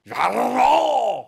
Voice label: crazy mumble; mvm forever

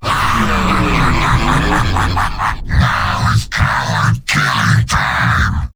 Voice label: evil heavy

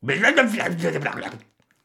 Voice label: crazy mumble; mvm forever

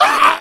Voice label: slasher hurt; marrowmasher

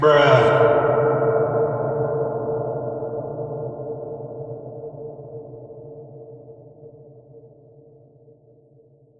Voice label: bruh reverb

